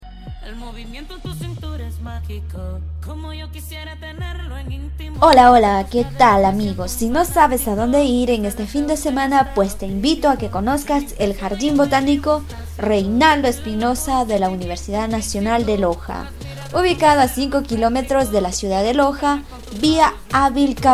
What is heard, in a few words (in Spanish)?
spot publicitario